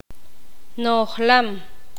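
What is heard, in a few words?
"lleno", Poqomam, female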